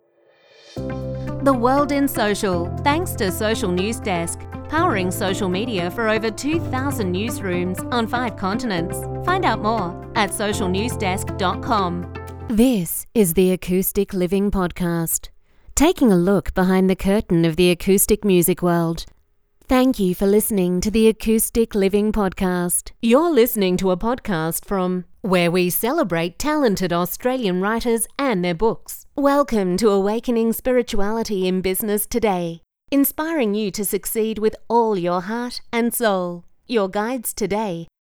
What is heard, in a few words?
Pod Cast Intro